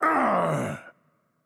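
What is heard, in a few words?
Hurt Heavy, Czech; VO; cs CZ; bloom minigame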